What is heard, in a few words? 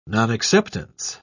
"nonacceptance", en uk